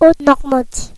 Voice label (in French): "haute-normandie", fr; france region; gcompris